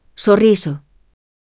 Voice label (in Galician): voz sintética; Cantiga